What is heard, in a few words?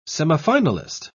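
"semifinalist", English, British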